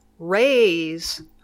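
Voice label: "raise", English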